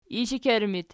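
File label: "ishekerttu" locative, Archi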